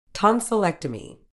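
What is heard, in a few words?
"tonsillectomy", British English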